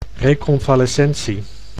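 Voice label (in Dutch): "reconvalescentie", Dutch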